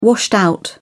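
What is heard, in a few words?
"washed out", British English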